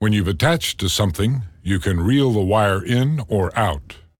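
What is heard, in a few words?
tutorial new; Bionic Commando 2009 PC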